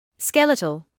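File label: "skeletal" English, British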